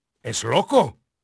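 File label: Spanish male, voice; Pirates Of The Caribbean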